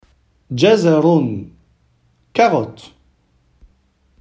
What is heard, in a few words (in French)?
carotte en arabe